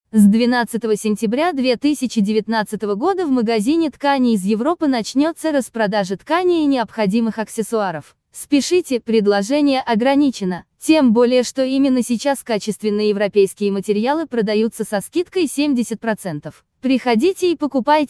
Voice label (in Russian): zhenskiy golos